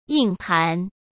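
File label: "硬盘", Mandarin Chinese; chinese flashcards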